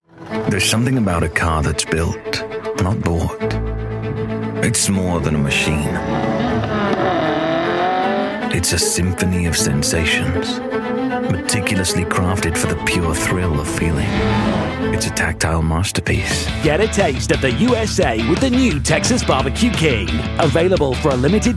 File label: commercial showreel, Youthful Guy Next Door British Voice